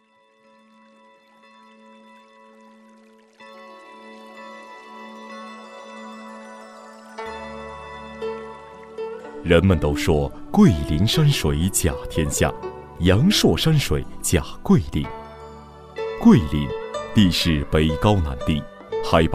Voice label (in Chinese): 宣传片 旅游 山水介绍篇 抒情, 男国; 桂林山水介绍篇